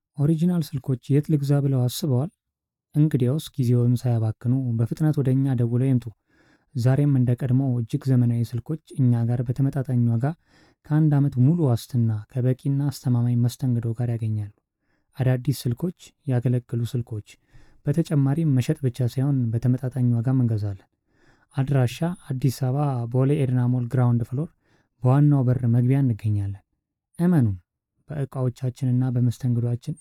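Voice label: Amharic, Ethiopia, male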